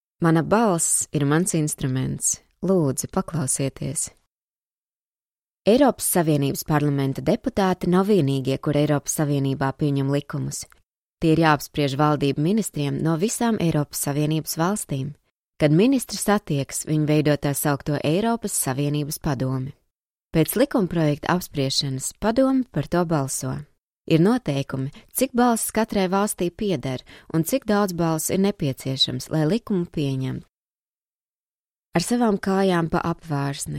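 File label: Latvian female documentary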